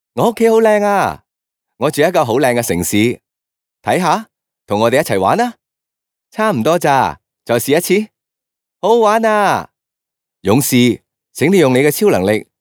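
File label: Cantonese male children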